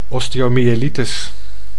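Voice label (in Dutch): "osteomyelitis", Dutch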